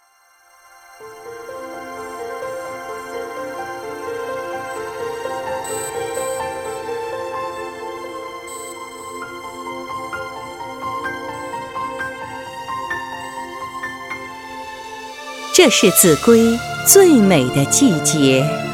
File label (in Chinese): 广告; 脐橙广告